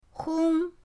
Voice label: "hong1", pinyin

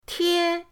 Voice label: "tie1", pinyin

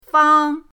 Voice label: "fang1", pinyin

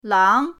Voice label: "lang2", pinyin

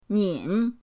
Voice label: "nin3", pinyin

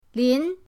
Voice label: "lin2", pinyin

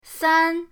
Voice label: "san1", pinyin

